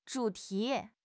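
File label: "zhu3 ti2", Chinese; 汉语口语新时代-初级下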